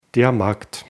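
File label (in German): "markt", German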